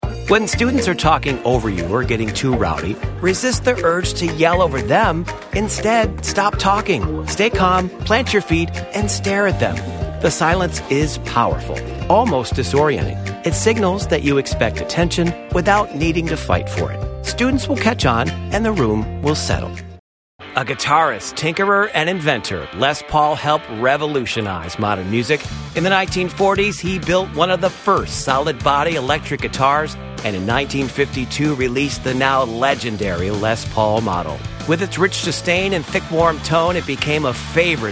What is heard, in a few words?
E Learning